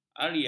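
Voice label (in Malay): "arya", Malay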